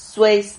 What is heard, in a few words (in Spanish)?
"sueis", Silabas